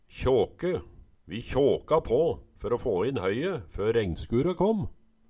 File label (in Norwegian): kjåke, Numedalsmål